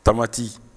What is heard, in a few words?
"tamati", Bambara; dumini